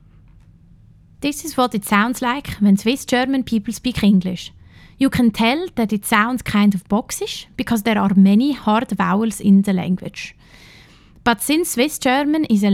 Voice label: Swiss German Accent